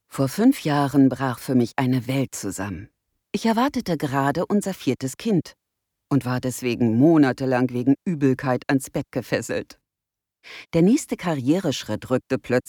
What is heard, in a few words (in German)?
Hoerbuch; Morgens Theater